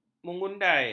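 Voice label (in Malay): "mengundai", Malay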